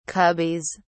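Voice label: "cubbies", British English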